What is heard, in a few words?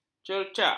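"cercak", Malay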